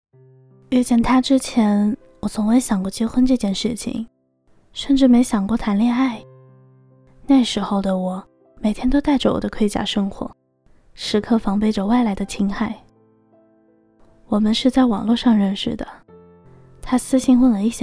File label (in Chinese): 情感类